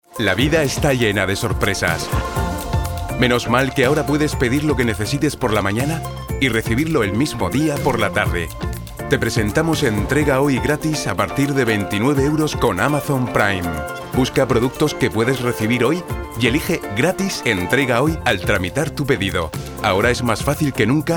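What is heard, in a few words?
Spanish Spain, male, voice over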